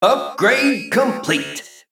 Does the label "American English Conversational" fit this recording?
No